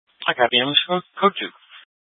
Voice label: Fire Departments Mesa Simulcast; TOPAZ